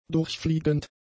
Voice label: "durchfliegend", German; adjectives

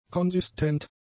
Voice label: "konsistent", German; adjectives

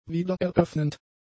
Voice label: "wiedereröffnend", German; adjectives

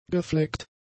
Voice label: "gefleckt", German; adjectives

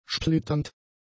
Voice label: "splitternd", German; adjectives

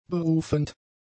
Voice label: "berufend", German; adjectives

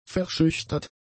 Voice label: "verschüchtert", german; adjectives

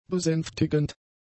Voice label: "besänftigend", German; adjectives

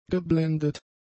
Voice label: "geblendet", German; adjectives

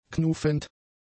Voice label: "knufend", German; adjectives

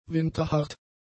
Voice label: "winterhart", German; adjectives

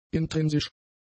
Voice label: "intrinsisch", german; adjectives

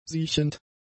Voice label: "siechend", German; adjectives

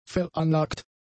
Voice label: "veranlagt", German; adjectives

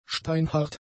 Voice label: "steinhart", German; adjectives

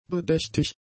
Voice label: "bedächtig", German; adjectives

